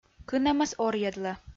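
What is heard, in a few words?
"кынӧмас орйӧдлӧ", Komi, female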